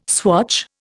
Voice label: "swatch", Indian